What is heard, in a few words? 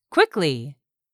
"QUICKLY", English; 3000 words